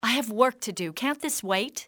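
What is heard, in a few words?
i have work, english female; XBOX; civ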